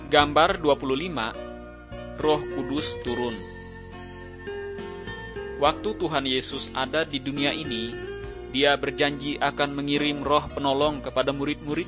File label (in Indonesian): kabar baik good news bahasa indonesia